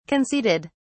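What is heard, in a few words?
"conceded", British English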